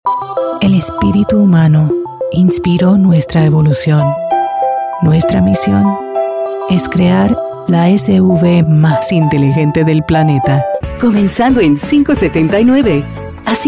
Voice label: Spanish Commercial